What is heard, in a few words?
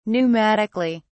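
"pneumatically", British English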